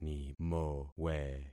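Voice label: "nimowe", concatenated syllables; Affixing experiment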